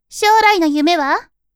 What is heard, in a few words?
woman